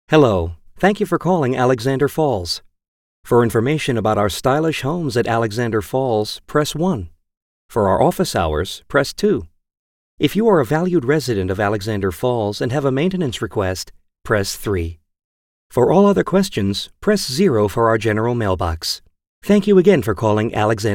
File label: American Male